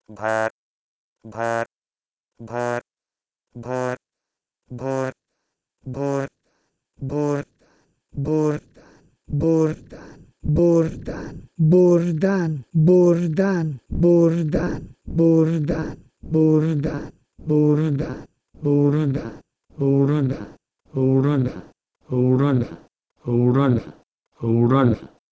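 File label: Proto-Indo-European bher to Pashto wral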